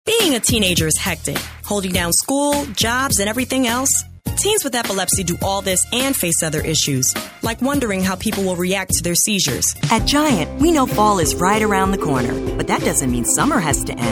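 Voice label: American English Commercial, voice over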